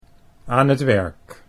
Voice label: "aan t werk", Dutch